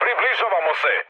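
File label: Serbian near waypoint mood med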